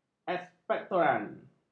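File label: "ekspektoran", Malay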